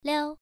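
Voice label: "liao", Mandarin Chinese, first tone, pronunciation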